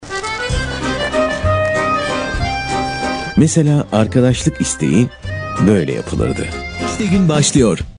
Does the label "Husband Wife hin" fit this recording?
No